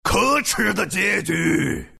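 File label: lose, chinese; dota2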